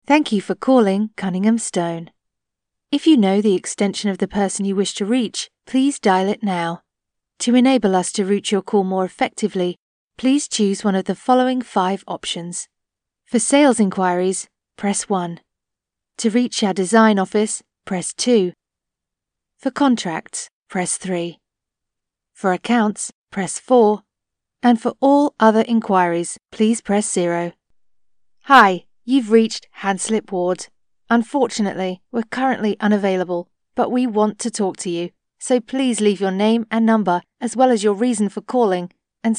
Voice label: Female; pre-recorded message